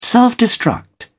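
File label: "self-destruct", British English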